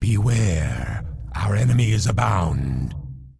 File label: Undead Male Warrior Farewell; NPC